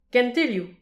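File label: "kenteliou", Breton; petit precis de prononciation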